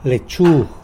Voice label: "lechu", tlacolula; lettuce